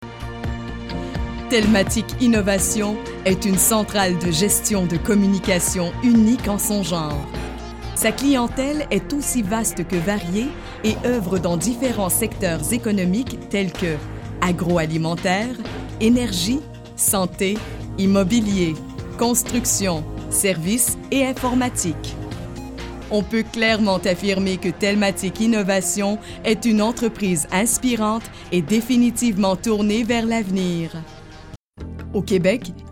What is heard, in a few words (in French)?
corporatif